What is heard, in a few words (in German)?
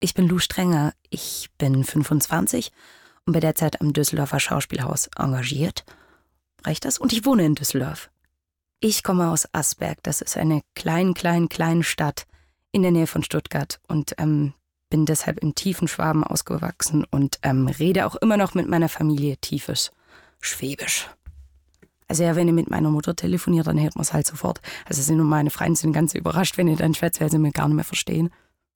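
intro inkl schwäb.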